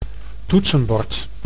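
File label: "toetsenbord", Dutch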